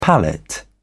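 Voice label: "pallet", British English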